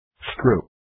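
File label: "screw", English word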